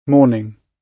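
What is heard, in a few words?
"morning", British English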